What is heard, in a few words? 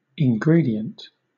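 "ingredient", British English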